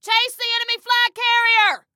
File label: Attack Chase, Female